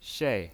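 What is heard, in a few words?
"shei", Mandarin Chinese pinyin, fourth tone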